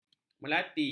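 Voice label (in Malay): "melati", Malay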